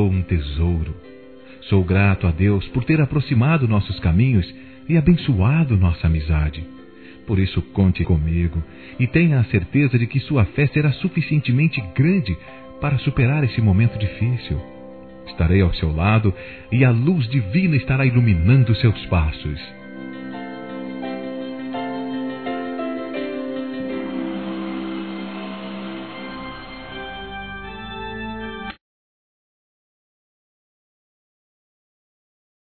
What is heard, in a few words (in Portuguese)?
CONSOLO AO AMIGO, Gospel, masc